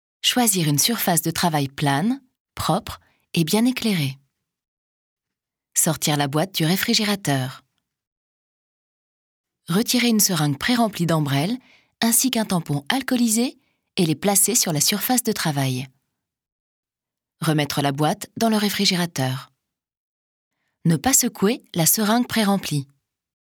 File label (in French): notice explicative; embrel